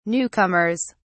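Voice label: "newcomers", British English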